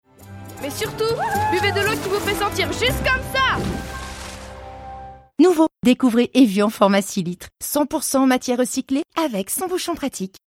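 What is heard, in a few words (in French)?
Spot TV Frais et Mutine, voix off féminine; Evian; Complice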